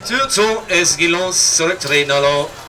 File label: "oktober", shouts; Best Service; Hyper Dance